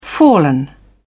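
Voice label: "fallen", British English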